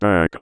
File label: VOICEFX ELCTR 140; Techno2